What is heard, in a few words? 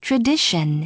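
"tradition", English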